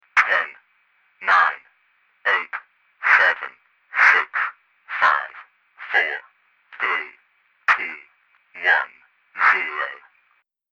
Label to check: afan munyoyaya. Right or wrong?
Wrong